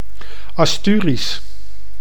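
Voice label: "Asturisch", Dutch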